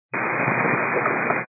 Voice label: 122 725MHz, scanner radio